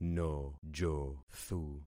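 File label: "nojothu", concatenated syllables; Affixing experiment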